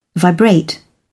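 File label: "vibrate", British English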